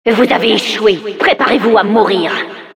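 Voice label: Announcer failed prepare sudden death, French; Administrator audio responses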